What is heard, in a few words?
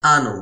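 "anu", Toki Pona; linku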